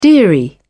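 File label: "dearie", British English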